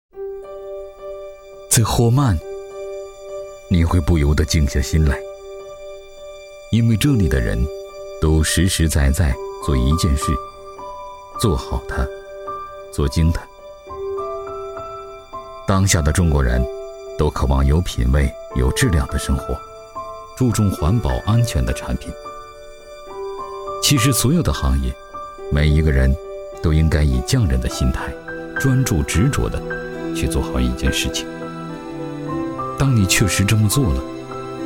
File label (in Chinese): 男 专题 陈述风格